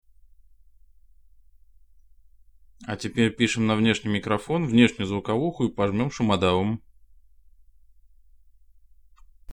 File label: test ext mic ext audio denoise; пишем с внешнего микрофона на внешнюю звуковуху плюс сверху проходим шумодавом; микрофон — Genius; звуковуха — ноунейм вида «USB dongle»; шумодавом работала audacity